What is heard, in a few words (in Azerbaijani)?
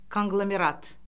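"konqlomerat", Azerbaijani; Orfoqrafiya Lüğəti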